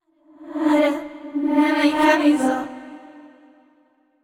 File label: sad; yamiko